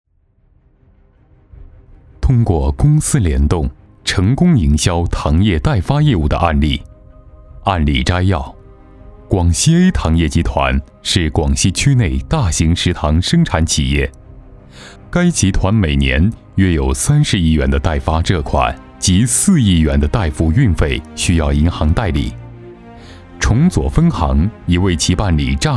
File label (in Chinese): 男 专题 产品业务介绍类